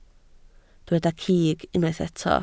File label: "cig", Welsh